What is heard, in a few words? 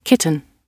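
"kitten", British English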